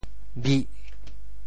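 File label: "bih4", Teochew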